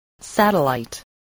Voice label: "satellite", English spelling

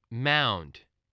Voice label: "mound", voice, spelling

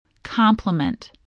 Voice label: "compliment", english

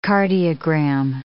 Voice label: "cardiogram", English

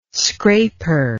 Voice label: "scraper", English

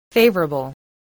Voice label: "favourable", spelling

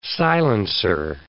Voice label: "silencer", English

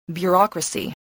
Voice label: "bureaucracy", spelling

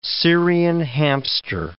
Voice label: "Syrian hamster", English

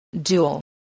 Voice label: "dual", English

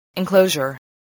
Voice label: "enclosure", spelling

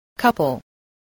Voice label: "couple", voice spelling

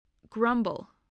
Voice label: "grumble", English

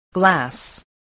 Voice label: "glass", voice

